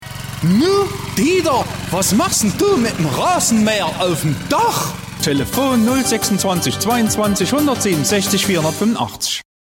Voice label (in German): Saechsisch